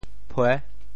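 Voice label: "phue6", Teochew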